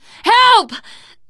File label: horde attack, teen girl, survivor voice